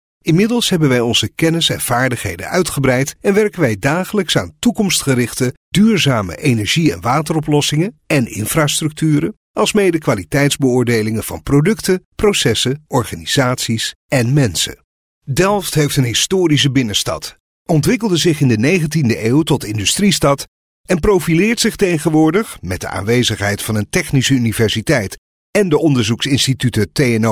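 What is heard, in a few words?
Dutch, male, Business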